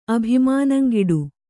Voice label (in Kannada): "ಅಭಿಮಾನಂಗಿಡು", kannada